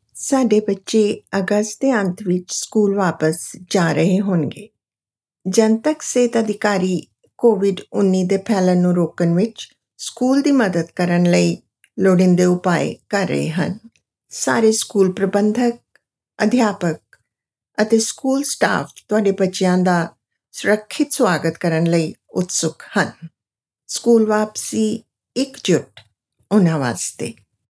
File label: Message Punjabi